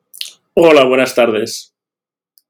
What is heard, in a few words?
voice message